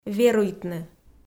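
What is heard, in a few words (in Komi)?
"веруйтны", Komi, female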